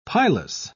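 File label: "pilous", British English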